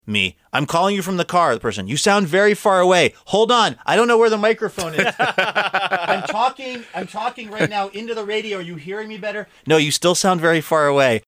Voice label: recreating phone conversation through car hands free